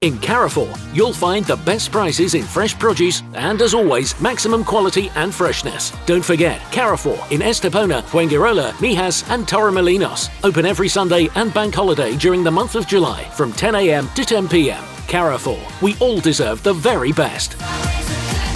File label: Radio Commercial; Carrefour